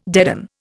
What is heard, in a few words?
"deaden", Indian